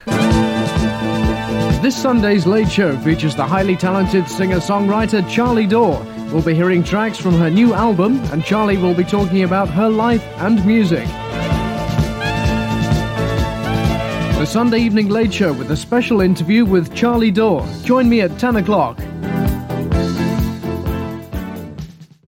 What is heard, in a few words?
Radio Hallam Advert